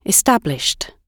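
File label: "established", British English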